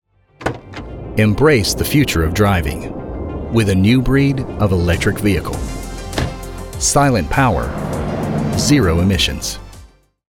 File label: Commercial EV